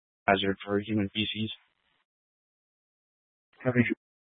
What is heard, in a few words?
Incident Tac 3, scanner